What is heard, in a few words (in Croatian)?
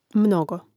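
"mnogo" Croatian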